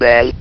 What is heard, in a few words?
"BEEN", votrax; VIDEO GAMES